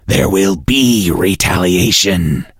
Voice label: hank hurt